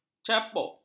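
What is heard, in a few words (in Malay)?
"capuk", Malay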